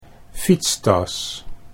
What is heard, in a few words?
"fietstas", Dutch